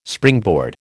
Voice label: "springboard", American